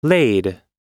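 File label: "laid", US English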